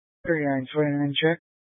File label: Police Dispatch, scanner; Police 1 Dispatch